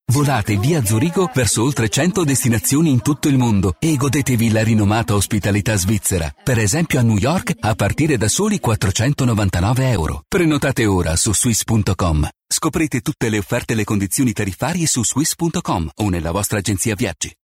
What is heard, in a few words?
male italian comercial